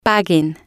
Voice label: "paguen", spanish